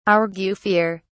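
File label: "argufier", British English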